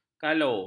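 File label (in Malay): "kalo", Malay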